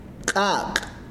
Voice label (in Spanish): fuego, mam